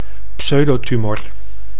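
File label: "pseudotumor", Dutch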